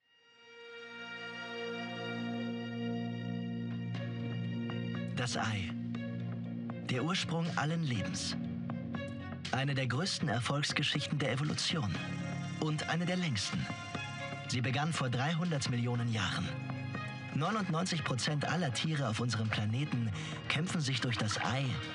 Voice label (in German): Dokumentation Off